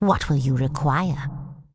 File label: Undead Female Magic NPC Greeting